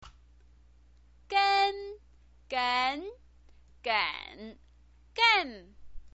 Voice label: "gen", chinese pinyin